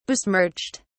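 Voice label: "besmirched", British English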